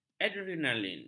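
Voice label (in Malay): "adrenalin", Malay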